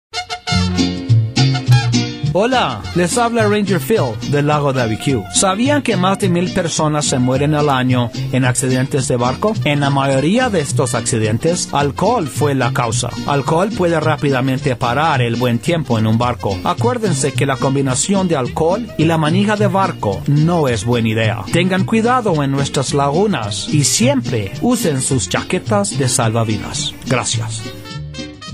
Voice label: Alcohol PSA Spanish; watersafety